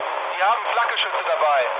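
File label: German damaged aa mood low, speech; War Thunder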